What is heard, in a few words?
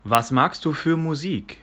"Musik", german